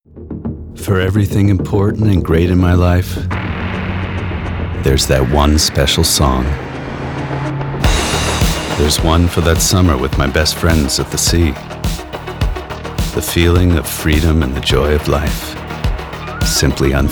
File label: american english male; BangOlufson